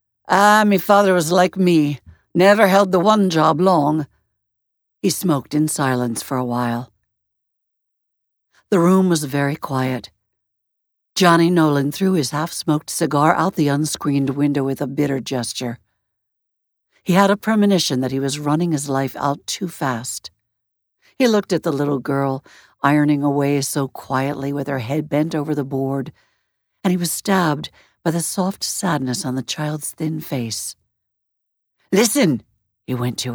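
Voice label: Fiction Classic, Audiobook Narration, 2 characters; Jonathan Livingston Seagull / Fiction – Classic (2 characters)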